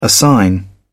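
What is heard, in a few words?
"assign", British English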